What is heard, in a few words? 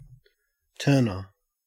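"turner", Australian English; pronunciations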